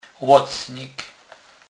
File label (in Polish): "locnik", kurpiow